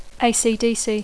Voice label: "ACDC" English